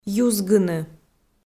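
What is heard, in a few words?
"юзгыны", Komi, female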